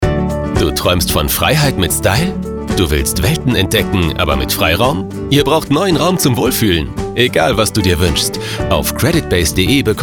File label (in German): Sprecherdemo Kredit Werbung